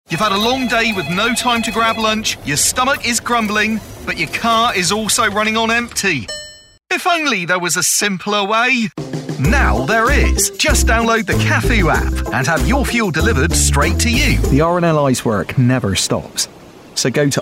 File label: english british promos